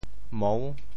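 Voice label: "mou6", Teochew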